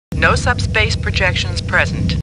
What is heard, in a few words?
Star Trek Computer Voice; StarTrekLCAR